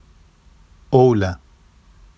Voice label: "owla", Vafsi; اُولَ; n smallpox